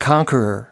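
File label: "conqueror", US English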